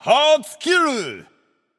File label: alert notify kill streak firstblood, japanese; caster